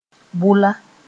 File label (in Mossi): "bʋla", Moore; blue